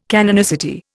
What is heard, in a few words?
"canonicity", Indian